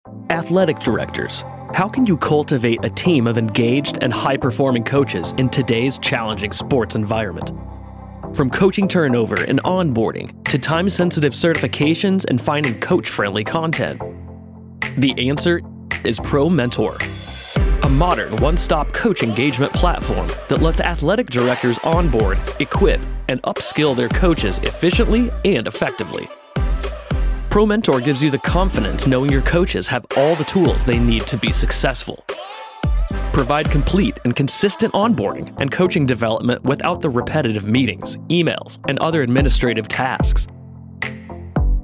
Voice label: voiceover; promentor